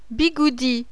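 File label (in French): "BIGOUDI", French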